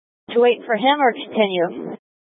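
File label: Incident Tactical 1, Scanner audio recording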